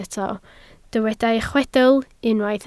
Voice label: "chwedl", Welsh

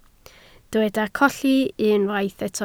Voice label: "colli", Welsh

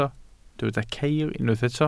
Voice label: "ceir", Welsh

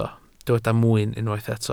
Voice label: "mwyn", Welsh

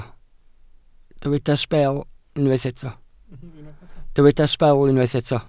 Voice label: "sbel" Welsh

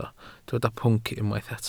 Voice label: "pwnc", Welsh

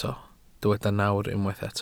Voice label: "nawr", Welsh

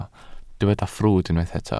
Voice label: "ffrwd" Welsh

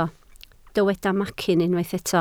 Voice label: "macyn", Welsh

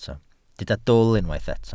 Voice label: "dwl", Welsh

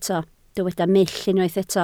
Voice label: "mull" Welsh

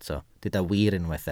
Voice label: "wir" Welsh

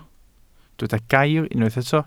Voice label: "gair", Welsh

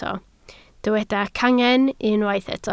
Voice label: "cangen", Welsh

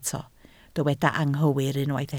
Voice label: "anghywir", Welsh

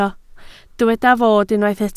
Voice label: "fod", Welsh

